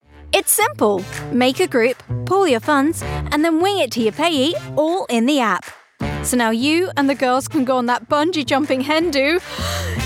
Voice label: commercial